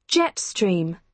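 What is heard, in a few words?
"jet stream", British English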